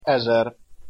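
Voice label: "ezer", Hungarian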